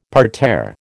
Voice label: "parterre", American